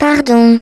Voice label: turret collide, fr